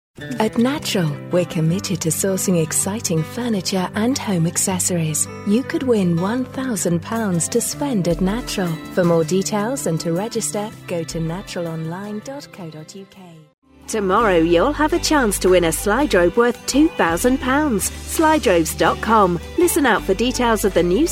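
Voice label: commercial, female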